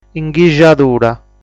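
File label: "Inghixadura", Sardinian word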